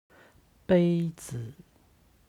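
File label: "beizi", Chinese; 杯子; カップ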